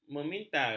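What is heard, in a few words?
"memintal", Malay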